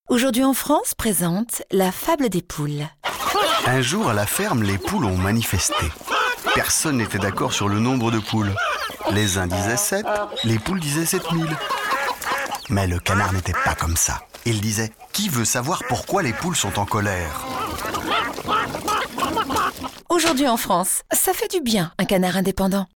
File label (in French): Signature souriante, voix off comédienne; Aujourd'hui en France